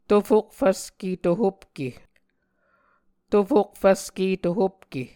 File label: "tofok-fvske-tohopke", muscogee